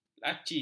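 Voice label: "laci", Malay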